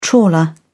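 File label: "trawler", British English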